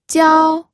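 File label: "jiao1", Mandarin Chinese first tone; pinyin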